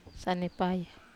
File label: "sanepaya", Wamesa